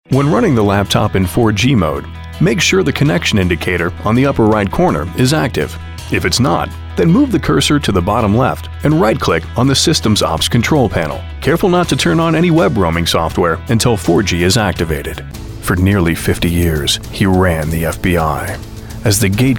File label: Men Narration